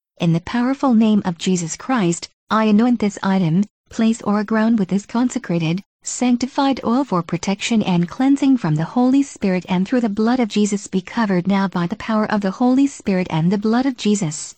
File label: protection prayer over item ground holy oil